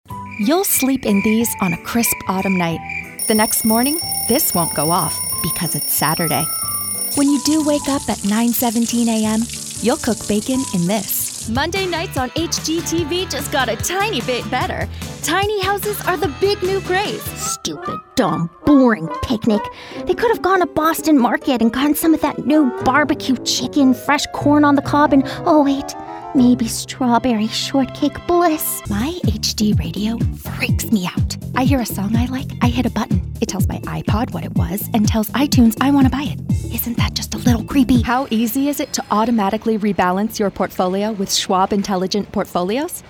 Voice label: Commercial